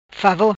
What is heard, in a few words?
"Favor", Macanese